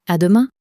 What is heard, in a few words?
"a demain", french; flashcards